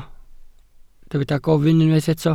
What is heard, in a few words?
"gofyn", Welsh